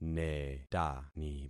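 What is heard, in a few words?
"netani", concatenated syllables; Affixing experiment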